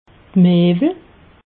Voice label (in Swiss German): "mewel", alsace